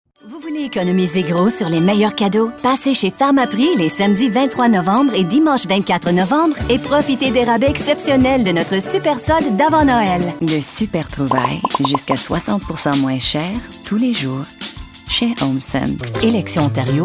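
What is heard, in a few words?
French Canada female, french canada voice over talent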